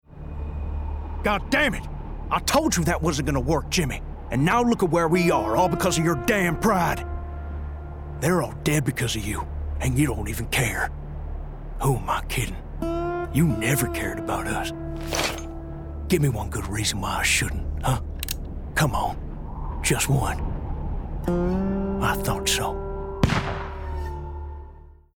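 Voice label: Texan Desert Gaming, male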